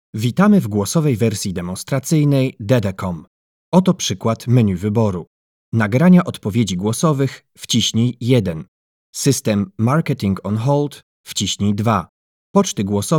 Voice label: Voice over Pools man